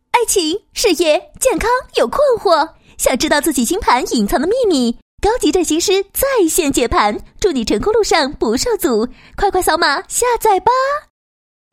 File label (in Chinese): 配音, 国语女声配音; 飞碟